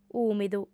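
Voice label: "ùmidu", Sardinian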